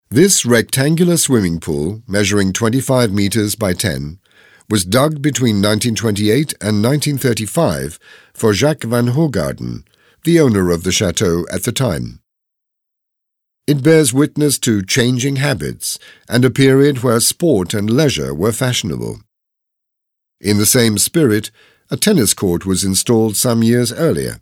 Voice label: audioguide en adulte; modave